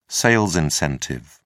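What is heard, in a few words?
"sales incentive", British English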